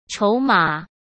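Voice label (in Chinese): "筹码", Mandarin Chinese; chinese-flashcards; hsk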